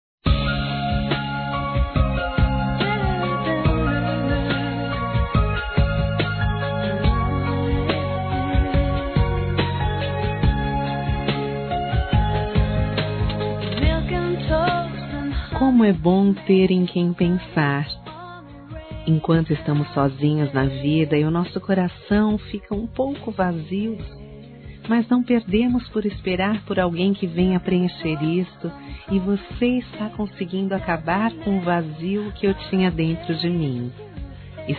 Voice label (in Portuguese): NOVO AMOR F, Telemensagens Amor Fem